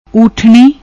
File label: "uttani", Punjabi